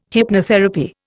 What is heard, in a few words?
"hypnotherapy", Indian